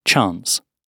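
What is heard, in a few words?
"chance", British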